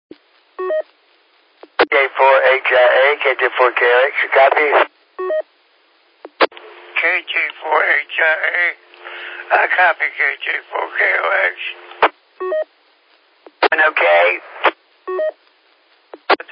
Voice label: qso rec repeater logic